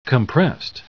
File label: compressed; QFreeRecite; TTS